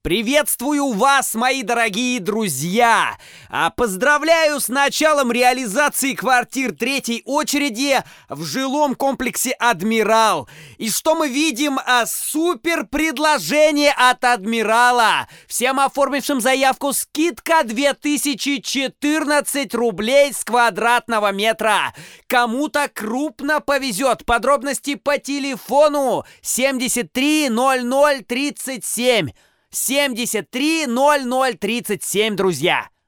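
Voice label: parody Russian; Guberniev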